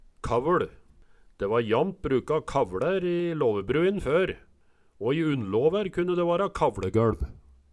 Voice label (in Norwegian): kaveL, Numedalsmål